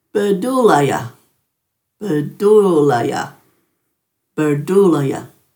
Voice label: "birdhuulay", Dhurga